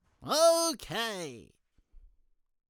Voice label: Okay Voice Cartoon